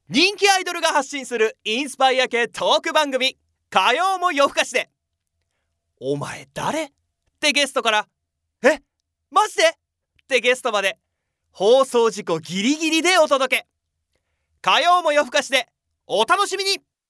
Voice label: narration